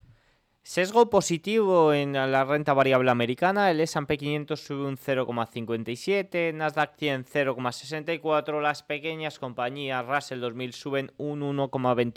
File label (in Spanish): radio; sesgo positivo en el mercado ante una semana sin datos importantes